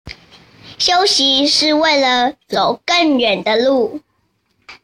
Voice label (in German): Chinesisches Sprichwort Aussprache; Kleine Pausen